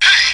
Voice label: "hey" distant distort guy, voice; ooh ahh